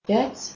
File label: Belarusian